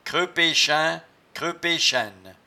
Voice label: "creupechaint", patois jura

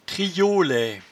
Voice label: "triolaie", patois jura

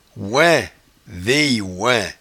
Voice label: "oing", patois jura

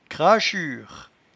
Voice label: "crachure", patois jura

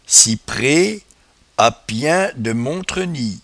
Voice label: "cipreatpiain", patois jura

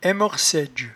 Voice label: "aimorcaidge", patois jura

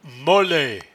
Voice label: "mollaie", patois jura

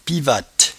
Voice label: "pivatte", patois jura

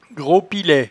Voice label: "grospilet", patois jura

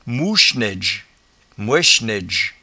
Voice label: "mouchnaidge", patois jura

